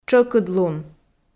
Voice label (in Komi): "тшӧкыдлун", Komi